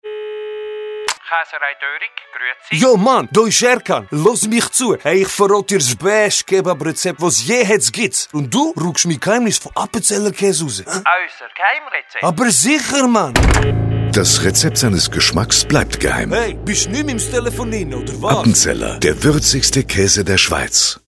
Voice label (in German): werbung; appenzeller